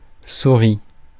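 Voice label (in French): "souris", French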